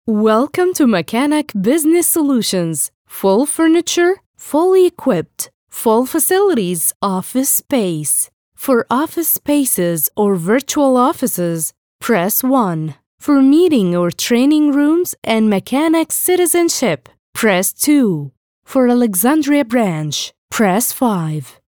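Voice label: english interactive voice response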